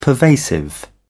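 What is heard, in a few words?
"pervasive", British English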